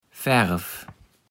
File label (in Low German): "Färv", Platt